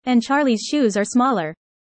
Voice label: user fast; how tall